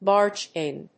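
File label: "barged in", English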